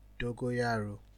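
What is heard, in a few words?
"dogoyaro", Nigerian Pidgin, male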